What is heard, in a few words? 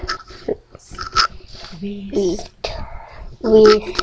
whisper